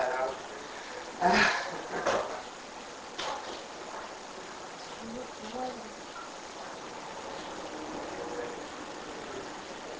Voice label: Unexplained whisper inaudible

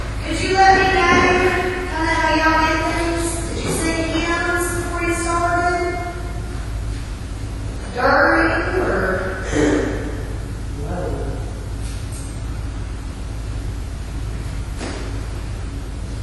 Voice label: Church Pullpit, male voice; Community Methodist Church

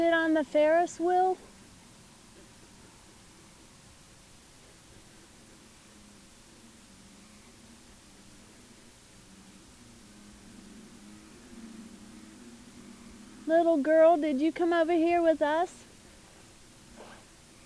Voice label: Unexplained Male voice What

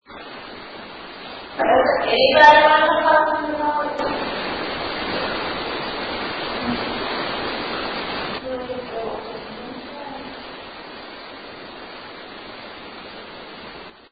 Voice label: museum noise voice amplified sounds like two no

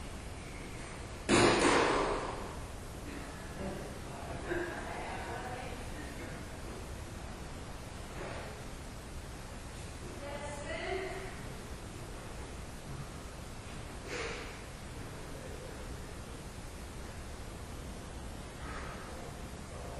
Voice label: Childrens Room Unexplained Female V; Major Graham Mansion; Olympus; Childrens Room Unexplained Female Voice

